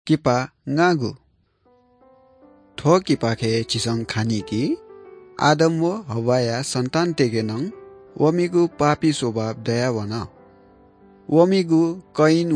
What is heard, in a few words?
good news newari bhaktapur